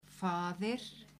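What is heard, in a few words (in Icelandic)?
"faðir", Icelandic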